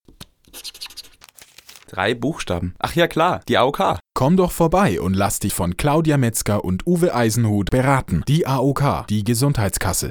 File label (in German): Werbung Reminder Ohne Musikbett; AOK